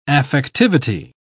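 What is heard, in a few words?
"affectivity", English, uk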